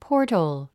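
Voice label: "portal", English; ごろたん 語呂でイメージする英単語; ポータゥと発音しましょう。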